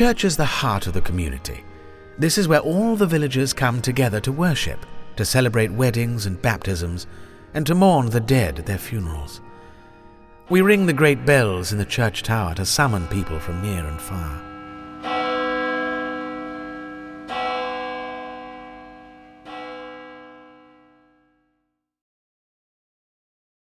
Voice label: Audio Character Medieval Priest; Dawlish Heritage Trail